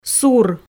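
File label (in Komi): "сур", Komi, female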